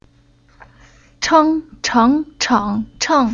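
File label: "Cheng", Chinese syllable; Уроки фонетического блока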